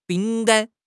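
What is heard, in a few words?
"bing3 gan1", Mandarin Chinese; HSK4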